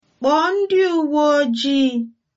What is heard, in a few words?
police, igbo